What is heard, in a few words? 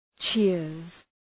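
"cheers", English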